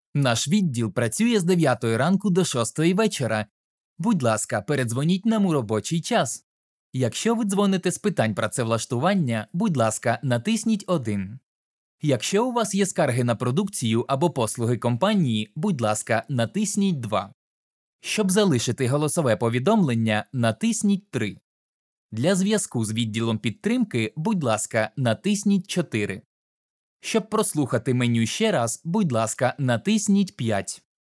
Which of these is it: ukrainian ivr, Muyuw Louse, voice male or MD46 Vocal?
ukrainian ivr